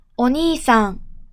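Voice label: "お兄さん", Japanese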